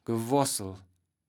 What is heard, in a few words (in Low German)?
"Gewoarßel", kaevels platt